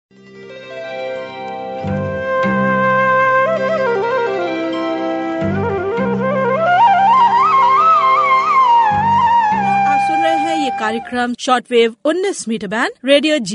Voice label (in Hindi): Hindi; Asia Pacific region